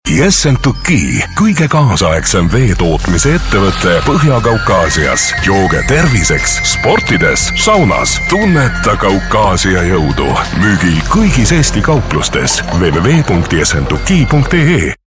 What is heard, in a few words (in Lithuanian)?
(VYR) Estų balsas